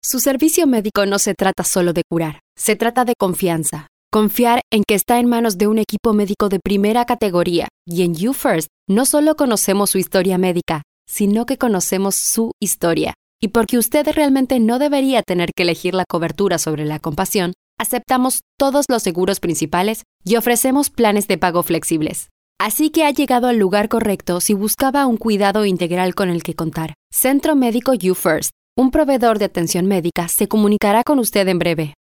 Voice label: Sincere